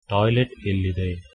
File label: toilet, kannada